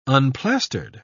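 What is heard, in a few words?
"unplastered", British English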